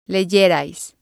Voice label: "leyerais", spanish

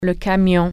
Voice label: "truck", French

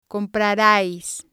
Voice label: "comprarias", Spanish

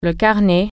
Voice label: "notebook", french

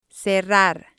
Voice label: "cerrar", spanish

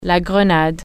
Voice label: grenade, french

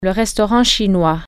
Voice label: chinese restaurant, french

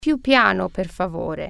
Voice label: slower please, italian

